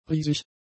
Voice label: "riesig", German; adjectives

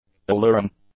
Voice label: "alluring", English; adjectives

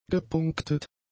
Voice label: "gepunktet", German; adjectives

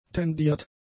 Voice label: "tendiert", German; adjectives

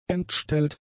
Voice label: "entstellt", German; adjectives

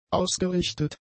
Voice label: "ausgerichtet", German; adjectives

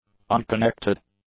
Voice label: "unconnected", English; adjectives

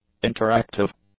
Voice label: "interactive", English; adjectives

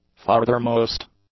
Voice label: "farthermost", english; adjectives

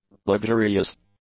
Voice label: "luxurious", English; adjectives

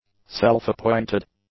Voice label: "self-appointed", English; adjectives

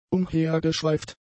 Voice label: "umhergeschweift", German; adjectives

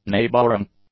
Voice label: "neighbouring", english; adjectives

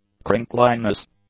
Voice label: "crinkliness", English; nouns

